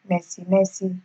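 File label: "messy messy", Nigerian Pidgin, female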